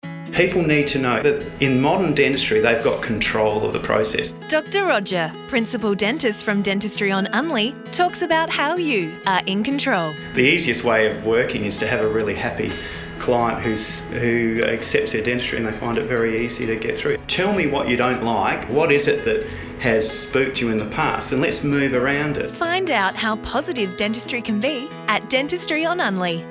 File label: RADIO AD